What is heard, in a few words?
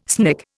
"snick", Indian